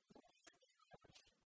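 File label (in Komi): лунъяс тӧлаӧсь, Komi, female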